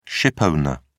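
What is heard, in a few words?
"shipowner", British English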